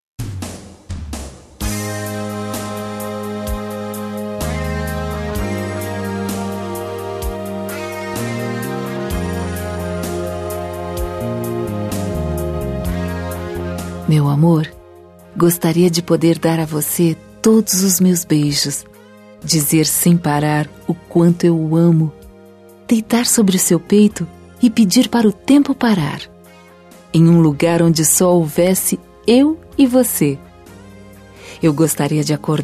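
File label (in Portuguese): Namorados Com Saudades, female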